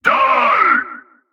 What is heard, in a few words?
Male Mid Combat Line, dialogue; NPC; Heist Cultist Mid Combat